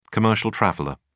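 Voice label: "commercial traveller", English, British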